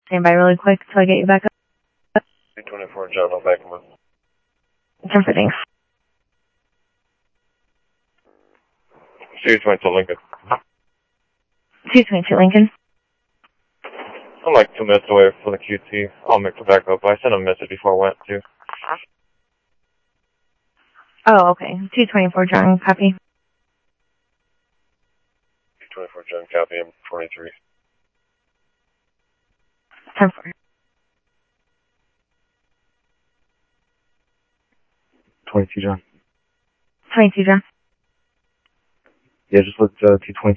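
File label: police department, scanner audio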